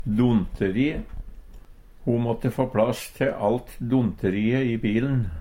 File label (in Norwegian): "donteri", Numedalsmål